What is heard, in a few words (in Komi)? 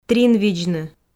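"тринвидзны", Komi, female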